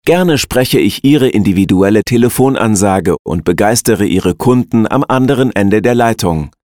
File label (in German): sprachprobe sprecher